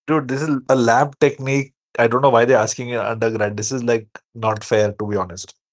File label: voice message; PCR - iTeachChem